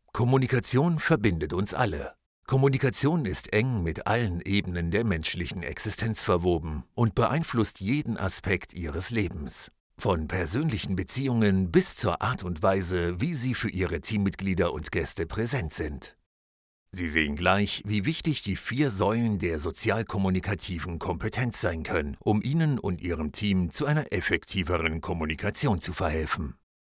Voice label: voices male german guest experience training; ford